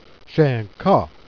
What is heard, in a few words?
"cat", jerriais